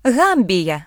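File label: "gambia", British; gcompris; geography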